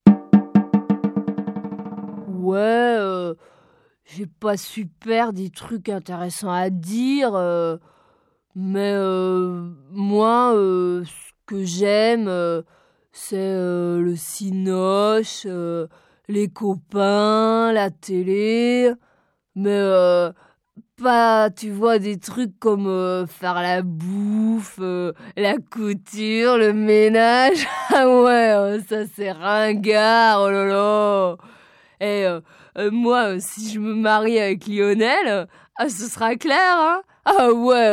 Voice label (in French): Adolescent